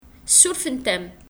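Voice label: Kabyle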